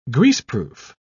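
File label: "greaseproof", British English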